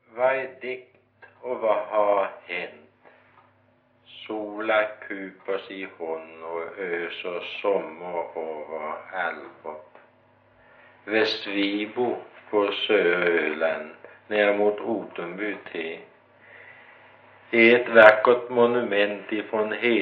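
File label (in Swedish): Gårdby Öland, dialekter; Folkminnen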